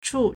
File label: "chù", Chinese